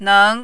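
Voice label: "neng", Mandarin, second tone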